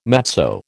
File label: "matzo", American